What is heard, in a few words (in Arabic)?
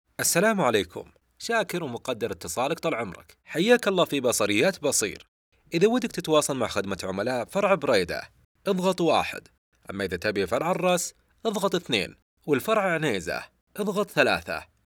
عينة رد آلي لهجة بيضاء ivr